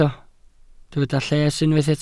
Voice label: "lles", Welsh